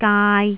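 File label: "gaai3", Jyutping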